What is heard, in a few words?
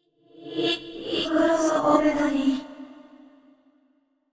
sad; VO yamiko